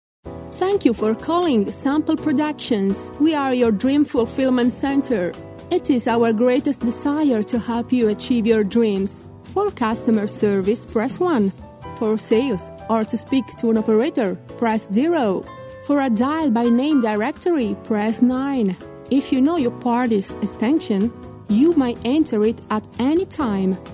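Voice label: Italian English, female, narration; accent of italy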